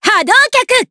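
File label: Vox Skill, Japanese; Cecilia; kingsraid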